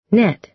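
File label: "net", American English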